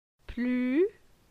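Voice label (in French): "Plu", French; la syllabe u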